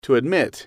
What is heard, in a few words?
to admit, English